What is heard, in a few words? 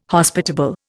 "Hospitable", Indian